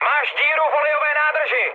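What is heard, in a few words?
damaged oil, Czech, mood high; War Thunder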